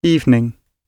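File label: "evening", British English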